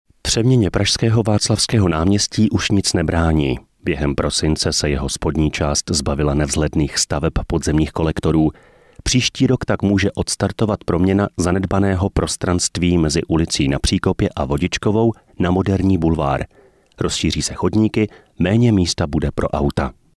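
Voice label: male Czech documentary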